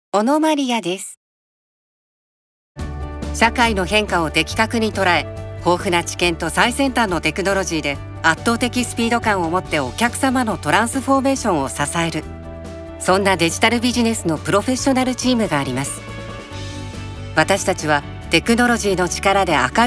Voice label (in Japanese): 企業向け低音ストレート